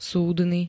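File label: "súdny", Slovak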